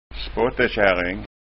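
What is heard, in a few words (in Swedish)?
"spottekaring", Scanian dialect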